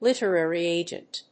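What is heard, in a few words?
"literary agent", English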